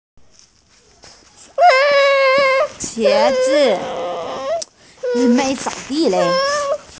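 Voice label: "qie2zi5", Mandarin Chinese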